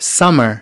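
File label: "summer", English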